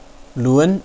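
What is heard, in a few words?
"lun", Mandarin Chinese, second tone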